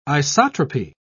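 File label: "isotropy", British English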